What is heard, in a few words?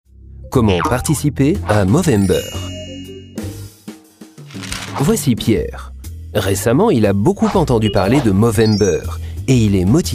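French, Dynamisch; Fremdtake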